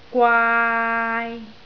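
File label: "gwaai3", Cantonese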